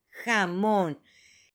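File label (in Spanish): "jamon" Spanish, male; ALIMENTOS; AppLenguaje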